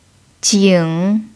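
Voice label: "jǐng", Mandarin Chinese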